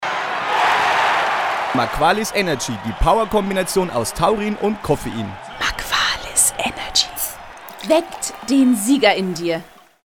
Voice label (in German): Werbung Reminder